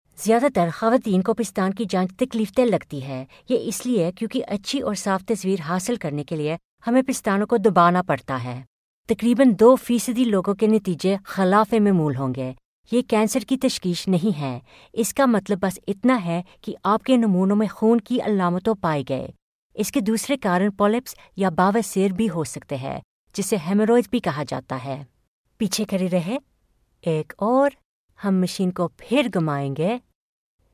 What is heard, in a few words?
Urdu